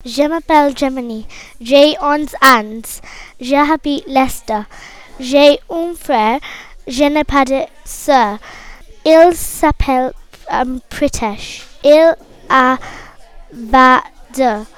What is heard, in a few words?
unit 1 speaking test